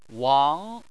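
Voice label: "wang", Chinese, second tone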